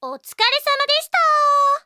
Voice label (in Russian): zvuki s golosom iaponskoi anime devushki